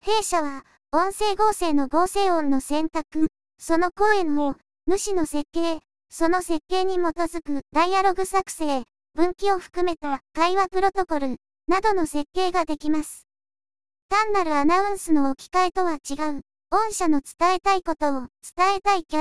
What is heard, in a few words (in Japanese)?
女声優合成の説明部; 音声合成